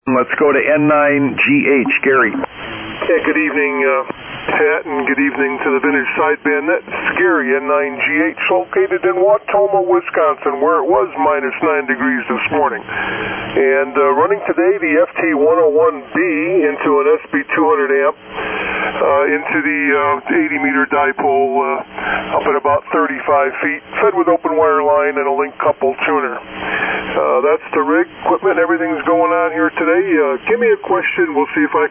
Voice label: Yaesu FT-101B & SB-200 Amp; Near Wautoma, Wi